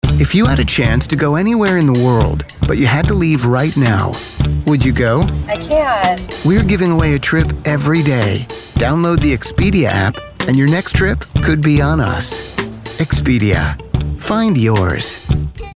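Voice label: American commercial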